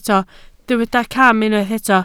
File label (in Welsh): "cam", Welsh